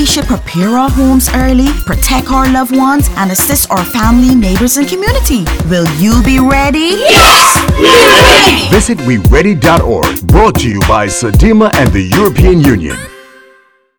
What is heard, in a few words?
HURRICANE RADIO ENGLISH